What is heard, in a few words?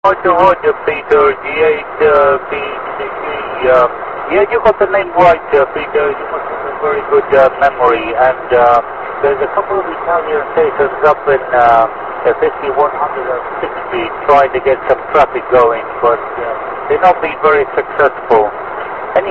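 amateur radio contact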